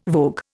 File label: "vogue", Indian